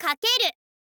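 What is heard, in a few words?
"kakeru", Japanese, girl; voice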